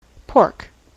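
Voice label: "pork", US English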